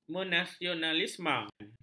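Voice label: "menasionalisme", Malay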